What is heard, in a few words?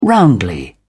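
"roundly", British English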